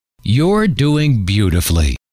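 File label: you Re Doing Beautifully, male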